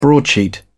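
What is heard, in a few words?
"broadsheet", British English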